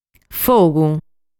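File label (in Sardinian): "Fogu", Sardinian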